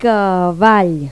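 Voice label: "caballo", Spanish; Pipo-Aprende musica con Pipo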